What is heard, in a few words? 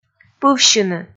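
"пывсьыны", Komi, female; kpv audio dict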